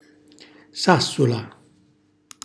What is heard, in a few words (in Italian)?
"sassula", Calabrese; cucina calabrese